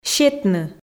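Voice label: "сетны", Komi, female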